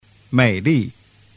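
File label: "meili", Chinese; doi dau giong; giao trinh 301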